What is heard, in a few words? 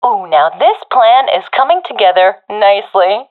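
round winning, professional female; Source Model Packs; Counter Strike Model Pack; CS2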